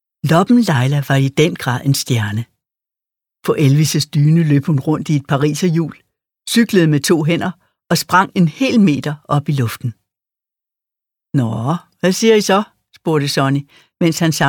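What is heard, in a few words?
Danish, Audiobook, female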